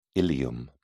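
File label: "ilium", English, British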